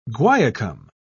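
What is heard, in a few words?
"guaiacum", British English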